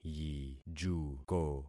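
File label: "yijuko", concatenated syllables; Affixing experiment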